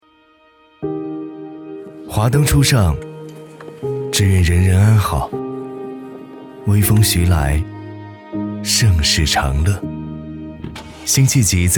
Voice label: 男, 质感磁性; 华灯初上

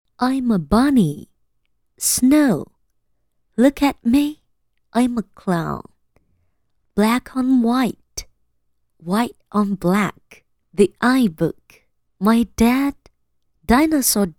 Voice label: 女 英文 绘本

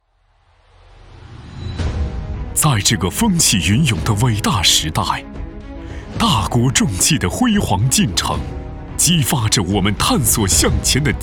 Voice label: 男,大气恢弘; 大国重器

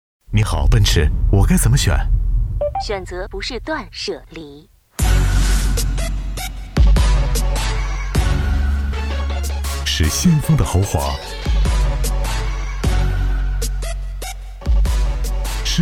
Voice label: 男 TVC广告 汽车; 梅赛德斯奔驰

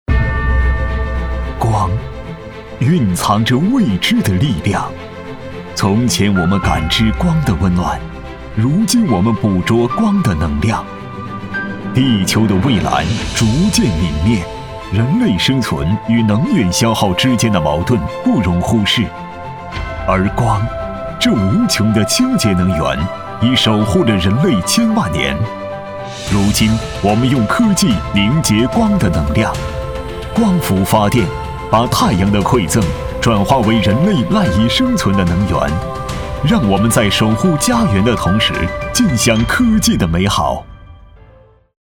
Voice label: 男 科技时尚 汇报; 光电科技汇报

